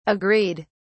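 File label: "agreed!", British English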